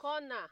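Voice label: "corner", Nigerian Pidgin, female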